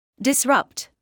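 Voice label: "disrupt" English, British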